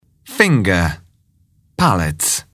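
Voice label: "finger", English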